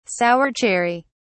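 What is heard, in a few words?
"sour cherry", British English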